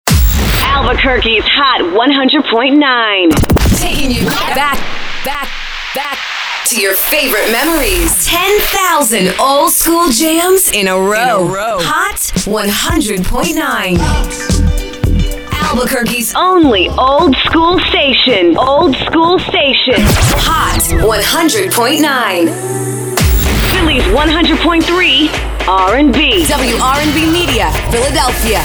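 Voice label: radio imaging women urban adult contemporary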